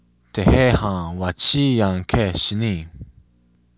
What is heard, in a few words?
long time no see, lakota